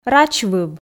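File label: "рачвыв", Komi, female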